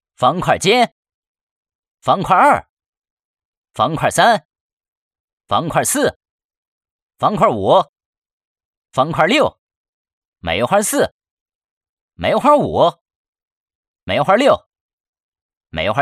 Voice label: 游戏棋牌普通话

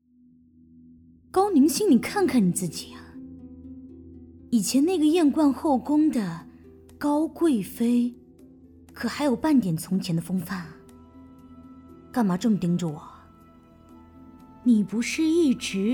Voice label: 角色 宫斗 女 阴险

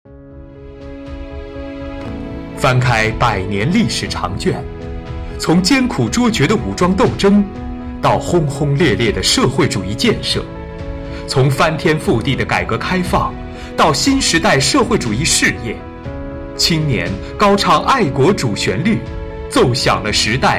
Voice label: 党政宣传配音

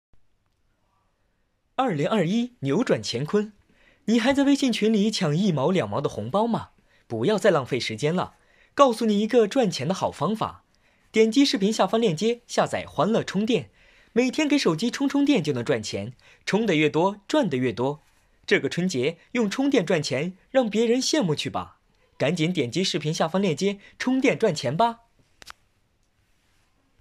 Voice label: 干音未修饰

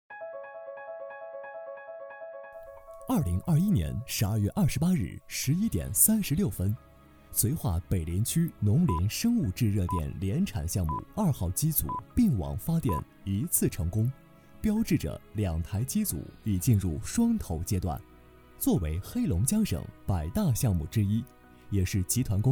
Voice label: 沉稳年轻时尚大气电力公司年度报告

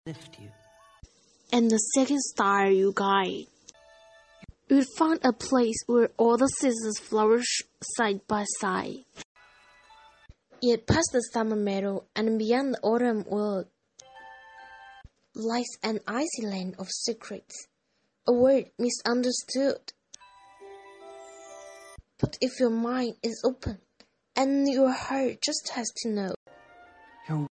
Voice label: 英文文献朗读

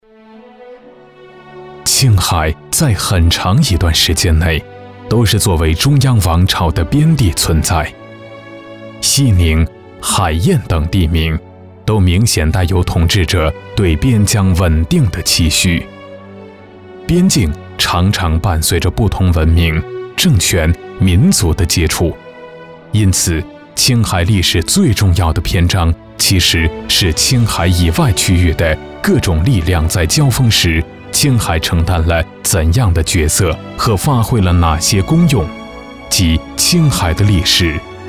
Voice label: 城市宣传片抒情青海

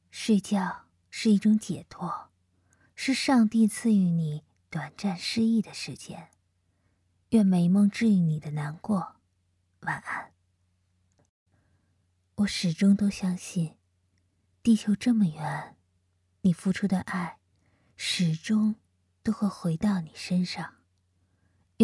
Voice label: 晚安配音

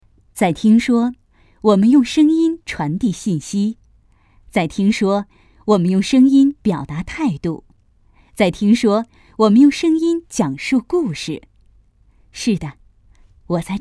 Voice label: 讲述类

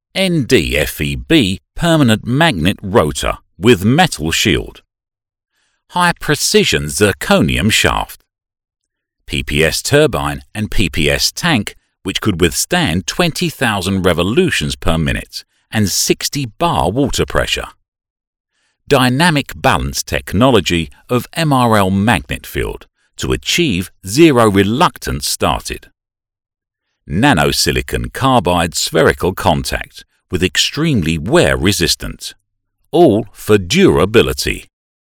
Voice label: 外籍英式英语大气深沉

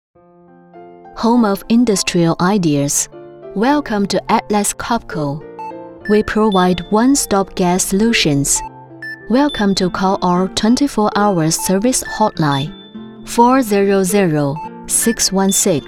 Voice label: 英文彩铃

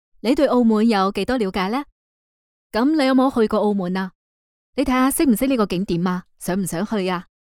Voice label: 粤语 街头采访

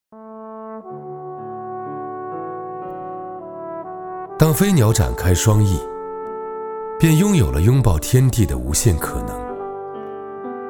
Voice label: 中英诗文

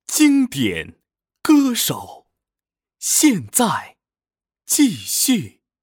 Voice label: 纯干音音频

